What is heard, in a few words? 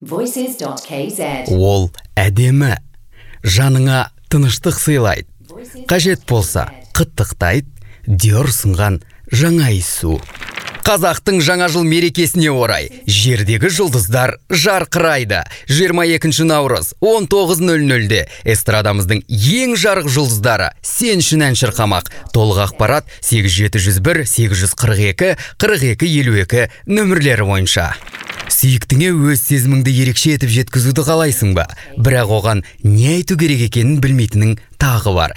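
kazakh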